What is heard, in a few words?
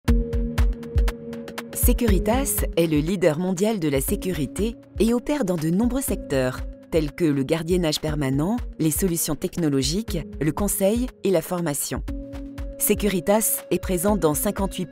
corporate; Securitas